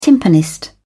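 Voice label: "timpanist", British English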